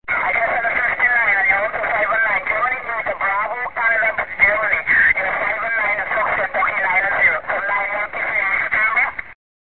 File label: qso